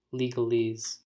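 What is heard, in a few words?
"legalese", US English; pronunciations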